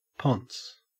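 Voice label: "ponce", Australian English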